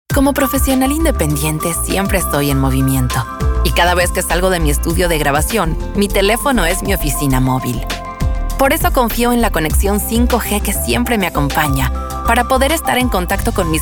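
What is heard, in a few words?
Casual Natural Latin American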